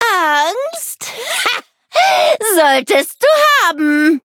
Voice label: levelup de; cookie run

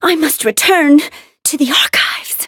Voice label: defeat, en; cookie run

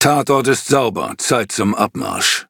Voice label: victory, de; Cookie Run

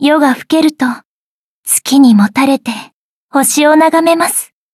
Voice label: upgrade, ja; Cookie Run

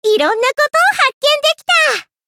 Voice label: levelup ja

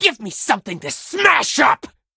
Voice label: level up, en; Cookie Run

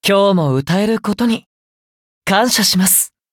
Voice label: levelup ja; cookierunwiki

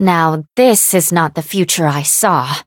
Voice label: defeat, en; Cookie Run

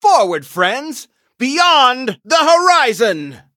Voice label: battle en; cookie run

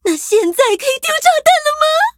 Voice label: levelup zh-hant; cookie run

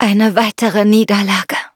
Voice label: defeat, de; Cookie Run

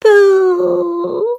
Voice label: defeat, en

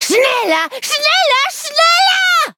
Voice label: battle, de; Cookie Run

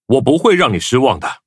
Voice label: loading zh-hant; cookie run

